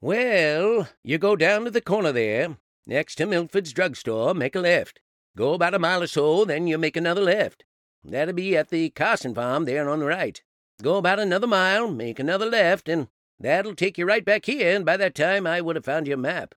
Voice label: New England; Voice, Acting & Singing samples